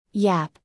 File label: "yap", English; звуки животных на английском; Salli